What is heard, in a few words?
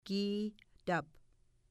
"kitap", Mi'kmaw